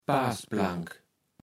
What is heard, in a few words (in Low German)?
"Parsplank", Platt